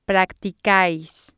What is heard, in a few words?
"pracicais" Spanish